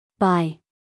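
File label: "buy", American English, female; everyone-can-use-english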